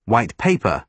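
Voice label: "white paper", British English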